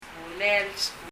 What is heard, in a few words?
"skuulil", パラオ語; 「彼／彼女の学校」